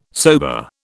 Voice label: "sober", British

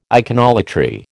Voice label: "iconolatry", American

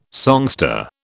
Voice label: "songster", British

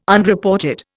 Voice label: "Unreported", Indian

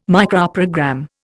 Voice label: "Microprogram", Indian

